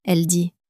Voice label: "elle dit", French